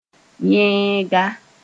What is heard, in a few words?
"yẽega", Mooré